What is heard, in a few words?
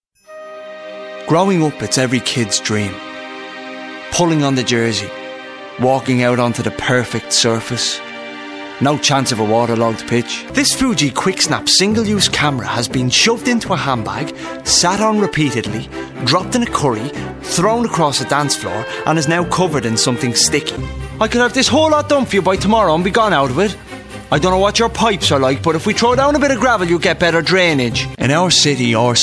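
English Irish, male, commercial; voice demos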